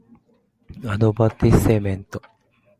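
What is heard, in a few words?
"advertisement", Japanese, male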